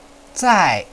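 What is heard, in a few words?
"zai4", Chinese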